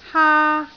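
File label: "haa1", Cantonese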